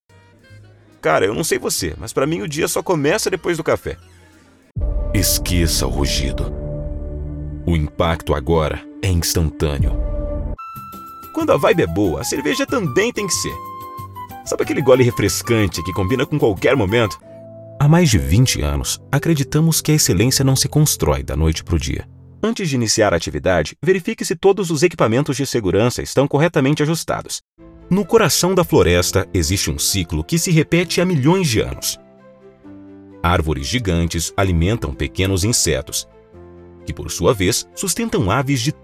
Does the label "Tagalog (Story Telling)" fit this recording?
No